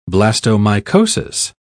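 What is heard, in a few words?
"blastomycosis", British English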